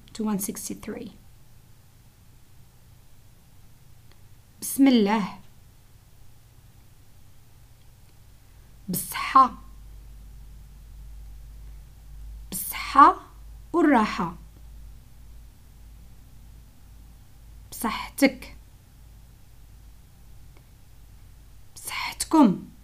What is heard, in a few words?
expressions, Arabic, North African, Moroccan